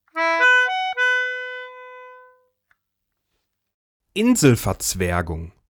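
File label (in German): "Inselverzwergung", German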